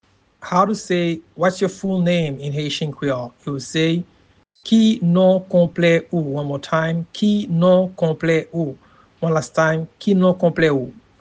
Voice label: Whats your full name in Haitian Creole Ki non konple ou pronunciation